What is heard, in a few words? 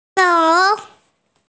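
"leng3", Mandarin Chinese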